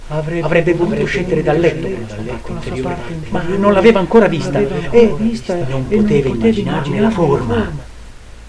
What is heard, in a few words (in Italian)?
3 voci; Kafka Franz; teatro PAROLA